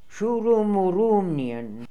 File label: "Shooroomooroomnian", pronounciations; Fourth Way; Beelzebub